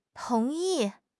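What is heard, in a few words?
"tong2 yi4", Chinese; HSK; HSK3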